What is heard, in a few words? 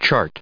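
"chart", US English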